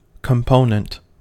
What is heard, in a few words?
"component", us